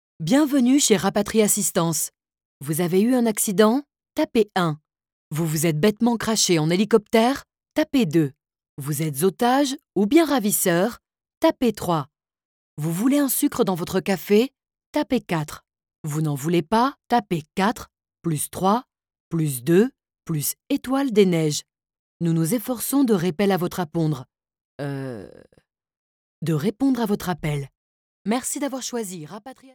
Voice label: IVR Voix jouee; RappatriAssistance

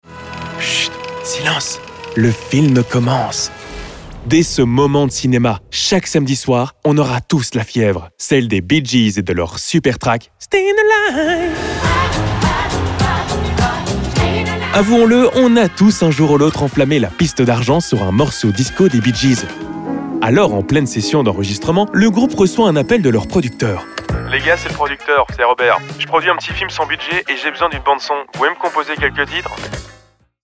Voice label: Podcast mashup extraits narration histoire musique ton souriant comedie dynamiq

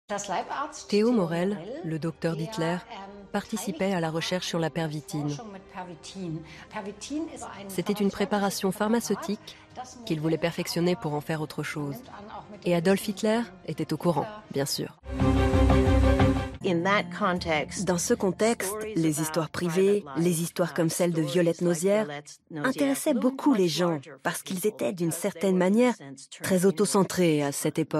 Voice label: Voix-off Documentaire Reportage

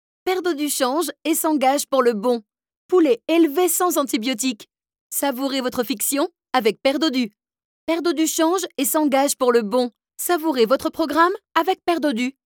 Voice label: TV Voix Dynamique; BILLBOARD; Pere Dodu

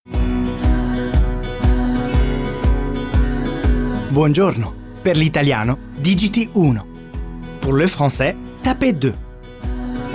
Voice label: ivr italien francais

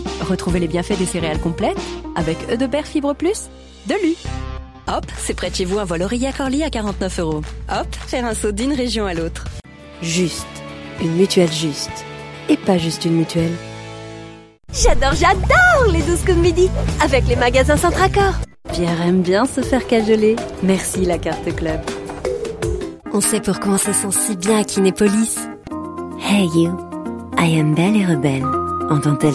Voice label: Pub Commerciale Brodcast

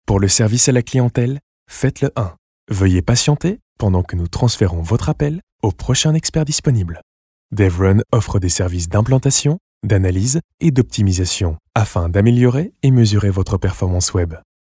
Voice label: IVR souriant chaleureux

